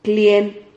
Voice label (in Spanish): "clien", Silabas